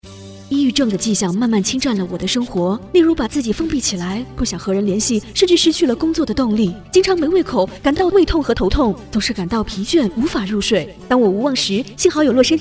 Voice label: Chinese Radio Ad Mandarin